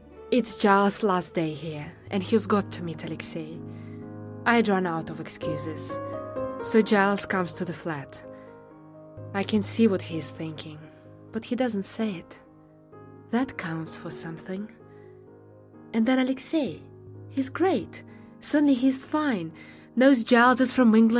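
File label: Rus Eng, Russian English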